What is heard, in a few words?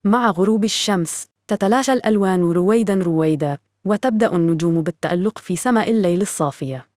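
Saudi Arabian Arabic; iOS 27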